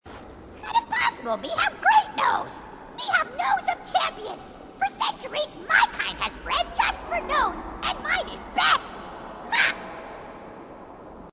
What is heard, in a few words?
grunt; h2dialogue; grunt crazy